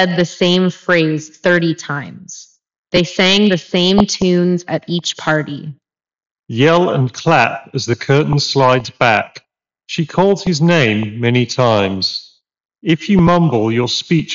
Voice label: reverb; Skullcandy Crusher PLYR 720